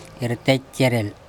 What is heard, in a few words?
"erte cerel", Andamanese